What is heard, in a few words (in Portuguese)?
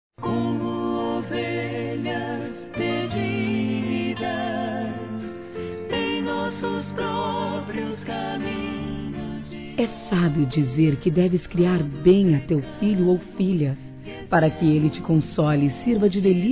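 MATERNIDADE, feminino; Telemensagens; Telemensagens 2017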